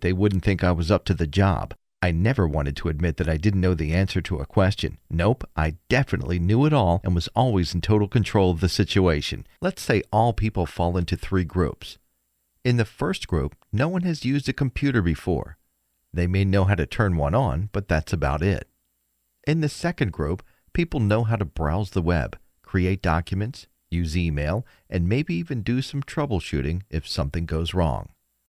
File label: Narration Training